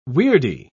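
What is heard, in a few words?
"weirdy", British English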